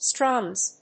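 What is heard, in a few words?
"strums", English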